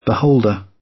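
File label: "beholder" British English; The arts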